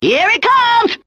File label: here it comes cartoon